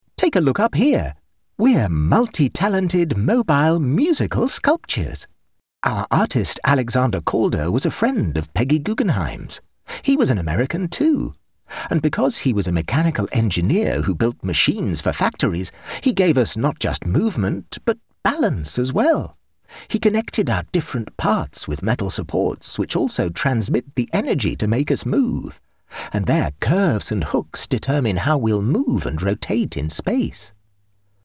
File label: english british animation